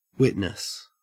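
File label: "witness", Australian English; pronunciations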